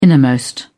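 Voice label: "innermost", British English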